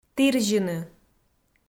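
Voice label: "тірзьыны", Komi, female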